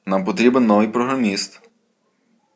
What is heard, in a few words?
dialogue, Ukrainian